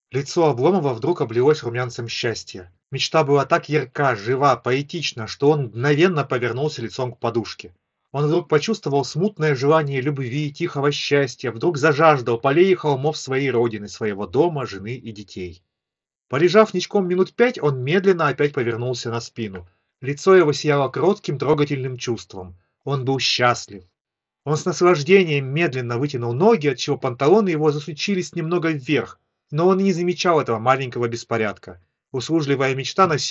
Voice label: Mic; Запись с микрофона колонки; Xiaomi Bluetooth Speaker ASM02G